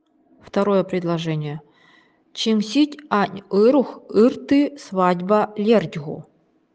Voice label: свадьба нивхский

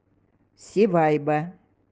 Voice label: свадьба эвенкийский

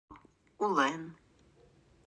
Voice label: "Хорошо", нанайский; Улэн; транскрипция [улэн]

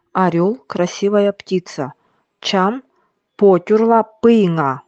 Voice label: Орел красивая птица Нивхский

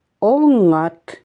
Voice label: ЭВЕНСКИЙ; Оӈат; транскрипция [онлат]; Нос

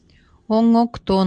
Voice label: ЭВЕНСКИЙСКИЙ; Оӈокто; транскрипция [онокто]; Нос

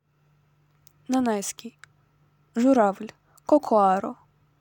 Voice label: нанайский язык журавль

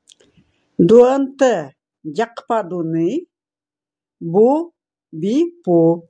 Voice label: УЛЬЧСКИЙ Мы живем рядом с тайгой; Дуэнтэ дякпадуни бу бипу.

